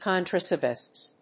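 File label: "contrabassist", English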